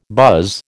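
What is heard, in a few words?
"buzz", American English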